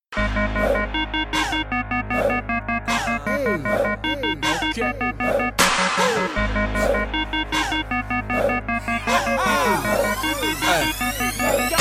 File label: Rap